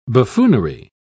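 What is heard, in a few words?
"buffoonery", British English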